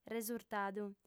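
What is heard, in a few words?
"resurtadu", Sardinian female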